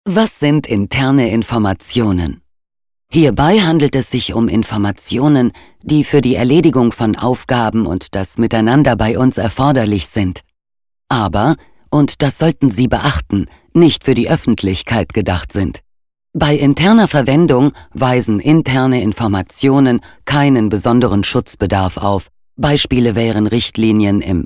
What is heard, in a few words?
E-Learning